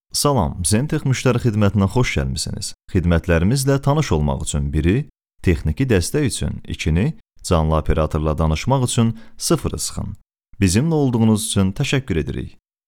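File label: Azerbaijani, male, IVR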